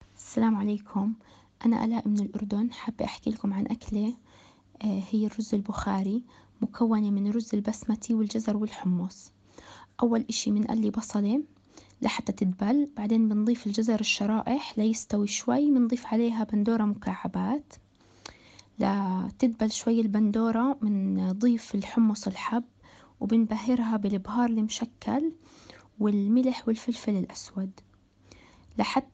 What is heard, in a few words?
Jordan recipe, Arabic; Manchester